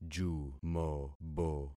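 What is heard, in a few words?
"jumobo", concatenated syllables; Affixing experiment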